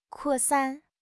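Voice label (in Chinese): "扩散", Chinese; HSK6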